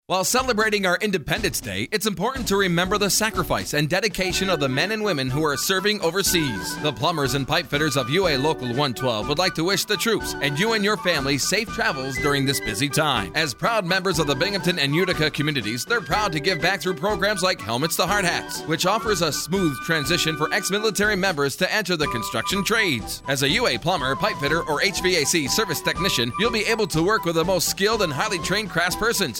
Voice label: Radio commercial; 4th july; binghamton